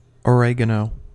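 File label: "oregano", American English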